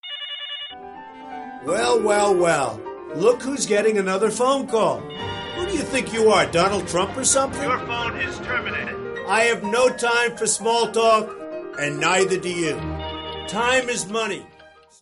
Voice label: Is Calling RNB

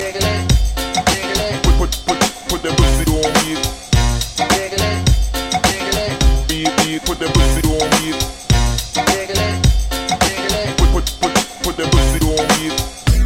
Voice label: girl talk no pause

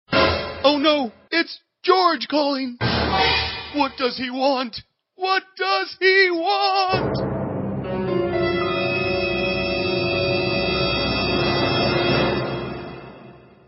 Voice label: Is Calling Pop, ringtones

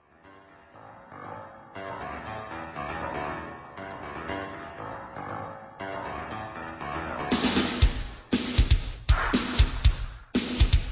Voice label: vietnamese rap

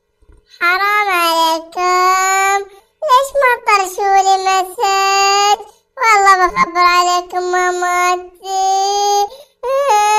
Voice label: child saying

